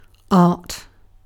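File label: "art", British English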